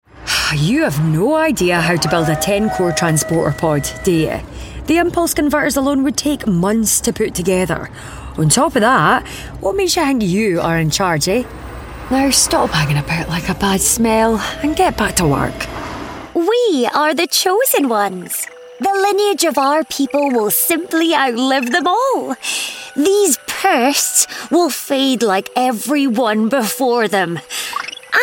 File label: character